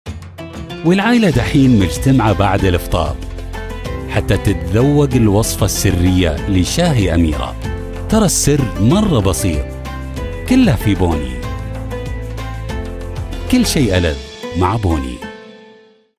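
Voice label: Arabic, commercial; GoLocalise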